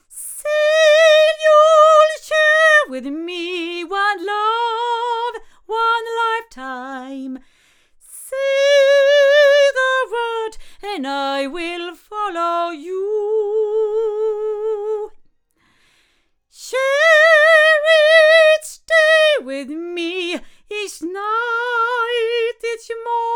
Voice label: CV-28 cardioid female; Avant